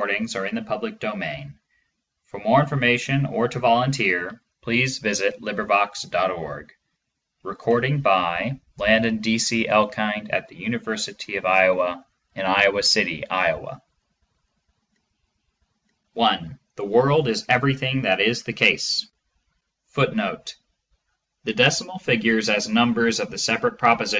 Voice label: Tractatus logico-philosophicus audiobook; Wittgenstein; Proposition 1; English